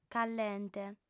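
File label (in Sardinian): "callente", female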